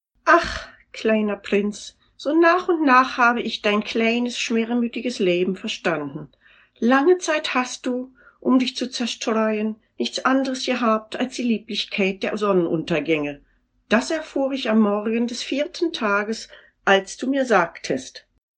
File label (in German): Deutsch Berlin